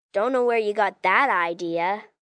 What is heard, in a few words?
Dont Know Where, Attitude, 1 girl, 10 yrs; VoiceBox